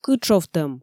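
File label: "кытшовтӧм", Komi, female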